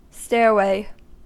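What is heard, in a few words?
"stairway", US English; pronunciations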